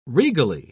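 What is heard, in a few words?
"regally", British English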